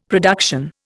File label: "production", Indian; Dictionary